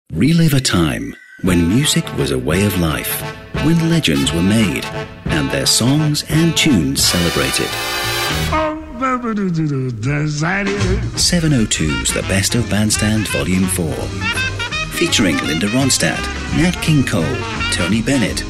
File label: British Voiceover Radio Promo